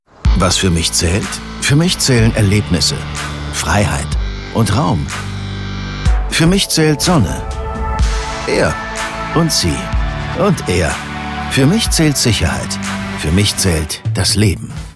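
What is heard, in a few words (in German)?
Spots OFF, Sprecher, Männer; Hyundai i30 Wagon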